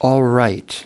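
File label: "all right", US English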